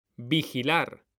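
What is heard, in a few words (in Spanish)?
"vigilar", Spanish; mekanta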